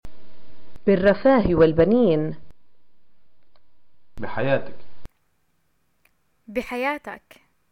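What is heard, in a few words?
"greeting marraige", Palestinian Arabic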